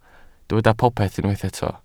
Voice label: "popeth", Welsh